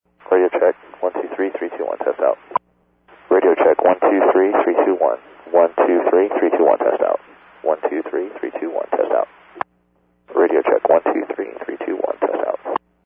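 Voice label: Radio Check, Satcom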